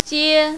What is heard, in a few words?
"jie5", mandarin, female